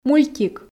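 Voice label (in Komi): "мультик", Komi, female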